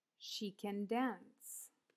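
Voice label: "She can dance", English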